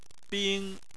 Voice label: "bing1", chinese

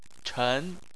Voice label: "chen2", chinese; Chinese Surnames

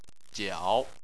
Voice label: "jiao3", Chinese

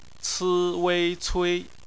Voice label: "cui", Chinese; BPMF spelling; phonetics

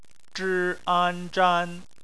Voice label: "zhan", Chinese

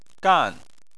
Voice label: "gan4", Chinese

